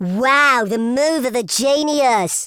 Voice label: "stupid", Teenage Angst; speech